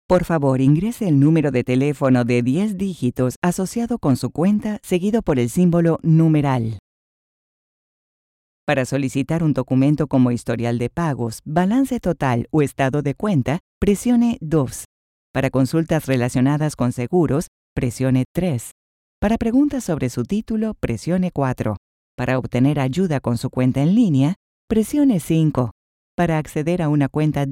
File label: Spanish Latin American IVR